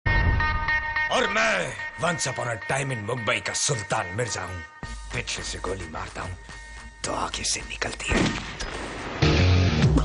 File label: Funny